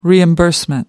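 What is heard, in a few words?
"reimbursement", British English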